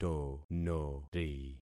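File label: "tonori", concatenated syllables; Affixing experiment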